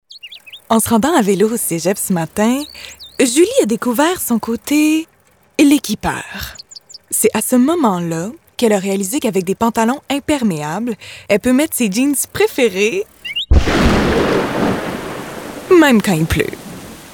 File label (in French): voix femmes; l equipeur